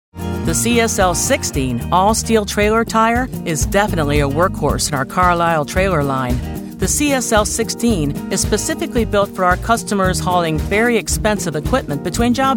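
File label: Corporate Narration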